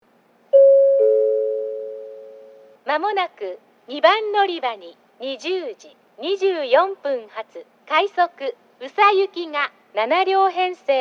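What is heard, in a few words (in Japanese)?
2番のりば予告放送, 女声; 行橋; 快速 宇佐行き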